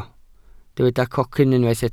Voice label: "cocyn", welsh